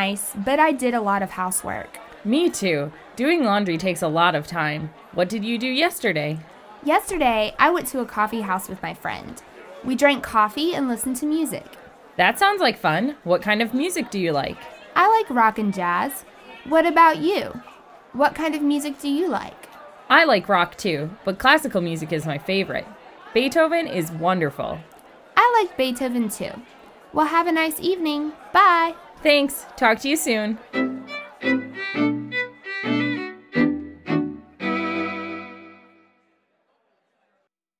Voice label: Conversation, English